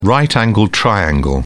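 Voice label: "right-angled triangle", British English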